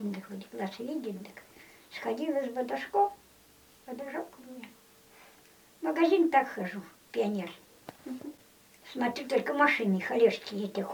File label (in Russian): среднеобский говор; бодожок